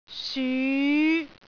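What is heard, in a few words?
"syu5", Cantonese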